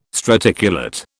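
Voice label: "straticulate", British English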